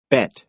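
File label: "bet", American English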